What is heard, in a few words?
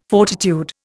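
"fortitude", Indian English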